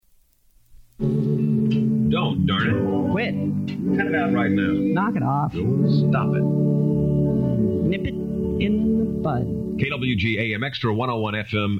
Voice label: promos; KEXX KWG Cellular One